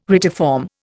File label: "retiform", Indian